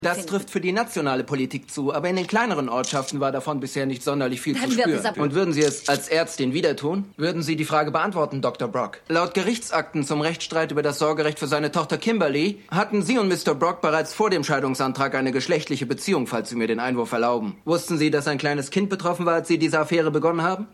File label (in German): Reporter; Picket Fences